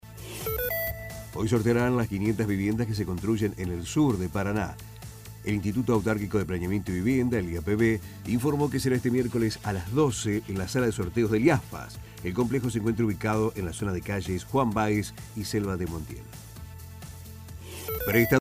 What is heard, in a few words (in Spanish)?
informativo; casas iapv